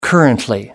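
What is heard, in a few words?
"currently", British English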